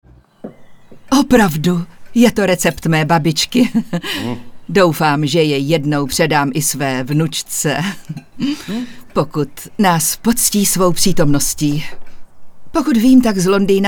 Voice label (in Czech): ukazka dabingu